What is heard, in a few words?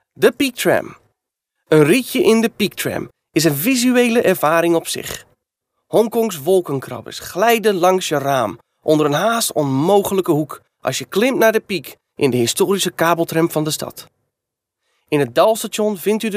NL male corporate